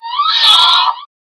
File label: Speaker Call; Urwin